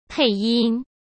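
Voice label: "配音", Mandarin Chinese; chinese-flashcards; hsk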